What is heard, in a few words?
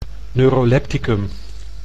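"neurolepticum", Dutch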